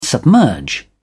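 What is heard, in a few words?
"submerge", British English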